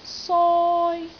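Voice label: "soi1", Cantonese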